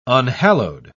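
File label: "unhallowed", British English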